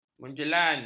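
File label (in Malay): "menjelan", Malay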